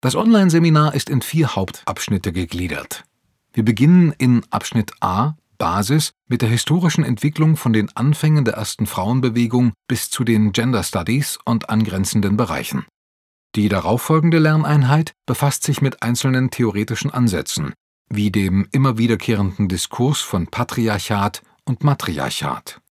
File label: e-learning